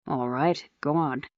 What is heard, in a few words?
fem